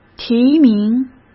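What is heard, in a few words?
"提名", Mandarin Chinese; hsk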